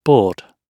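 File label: "board", British English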